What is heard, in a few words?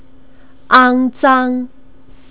"angzang", Chinese pronunciation